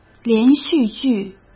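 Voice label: "连续剧", Mandarin Chinese; hsk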